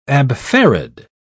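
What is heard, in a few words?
"abfarad", British English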